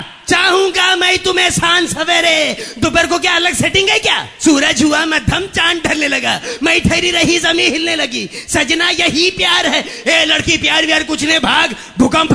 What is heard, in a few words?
Nana Comedy